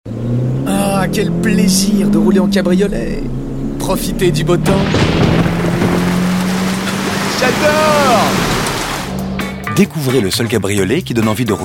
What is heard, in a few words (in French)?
Voix Off; Minicabrio